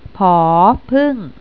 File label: "phung", Thai